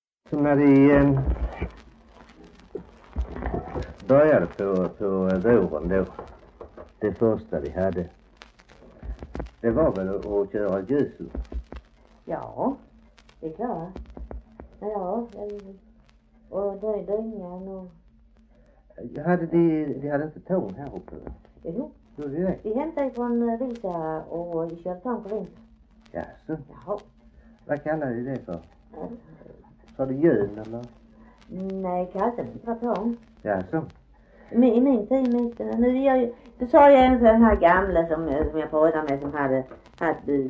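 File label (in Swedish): Harplinge Halland, dialekter; Folkminnen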